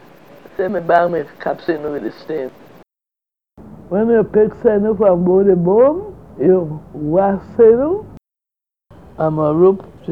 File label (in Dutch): Negerhollands